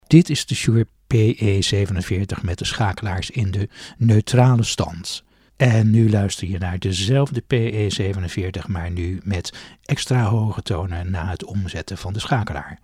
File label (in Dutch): Shure PE47 NL sound